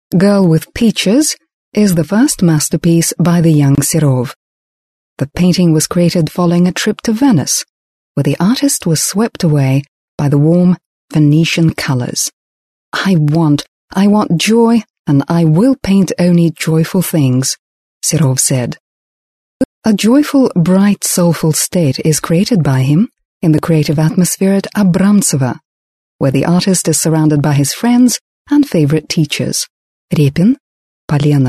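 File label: British; uk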